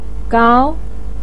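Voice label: "gao", Mandarin Chinese, second tone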